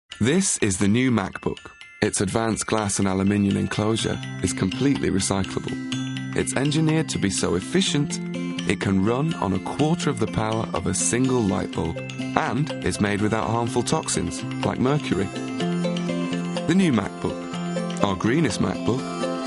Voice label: natural confident; MacBook